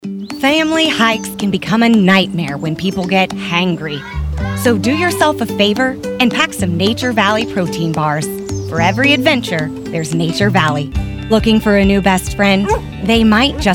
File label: Commercial